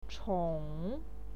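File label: "chong", Mandarin Chinese, third tone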